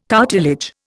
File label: "cartilage", Indian